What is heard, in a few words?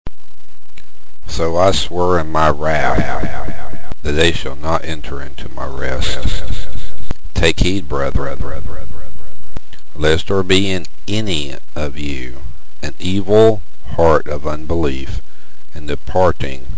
commercial